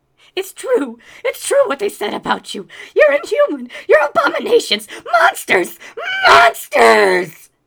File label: Male Begging